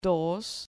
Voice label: "dos", Spanish

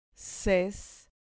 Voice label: "ces", Spanish syllable

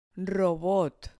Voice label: "robot", Spanish

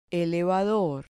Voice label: "elevador", Spanish

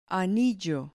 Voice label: "anillo", Spanish

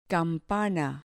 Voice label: "campana", Spanish

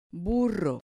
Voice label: "burro", Spanish

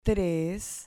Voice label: "tres", Spanish

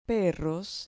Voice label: "perros", Spanish

